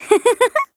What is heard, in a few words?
VO en